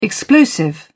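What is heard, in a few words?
"explosive", English, British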